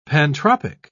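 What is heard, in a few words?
"pantropic", British English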